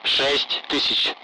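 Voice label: Speech, Russian, Numbers, 60; Allied Flight; DCS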